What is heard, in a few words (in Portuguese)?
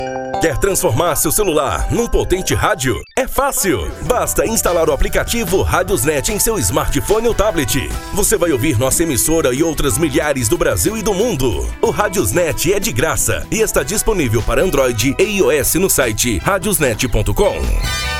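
Quer transformar seu celular, masculino; RadiosNet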